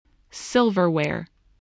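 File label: "silverware", spoken word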